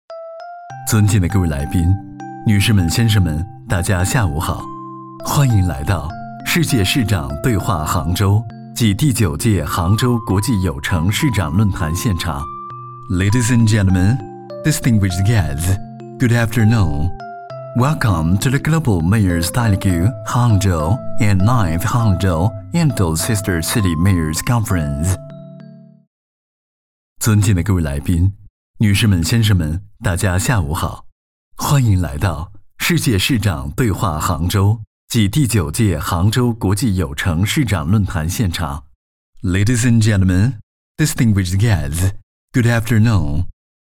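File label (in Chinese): 【男中英文】会议提示 温馨亲切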